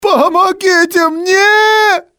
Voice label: help me, male; XBOX; civ